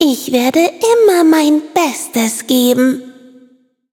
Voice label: levelup, de